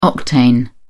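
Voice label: "octane", English, British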